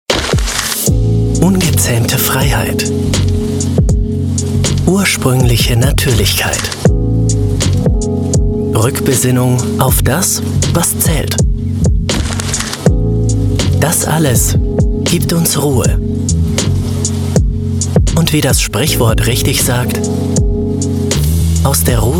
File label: Natural Real